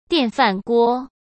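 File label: "电饭锅", Mandarin Chinese; chinese-flashcards; hsk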